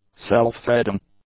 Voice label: "self-threading", English; adjectives